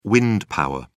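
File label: "wind power", British English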